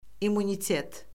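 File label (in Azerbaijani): "immunitet", Azerbaijani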